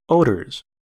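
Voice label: "odors", American English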